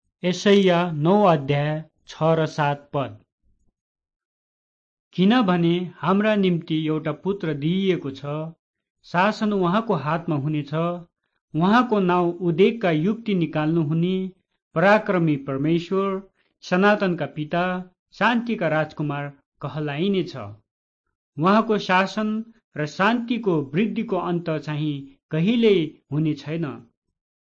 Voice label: words of life nepali kathmandu